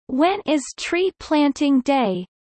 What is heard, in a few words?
low; four seasons